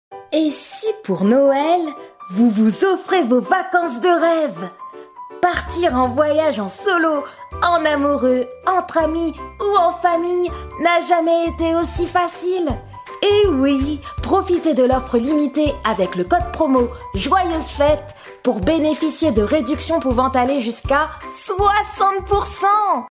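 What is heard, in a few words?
French African, Commercial; GoLocalise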